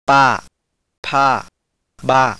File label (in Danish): thai; [pâ: pʰâ: bâ:]